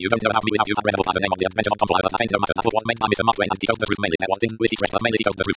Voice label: espeak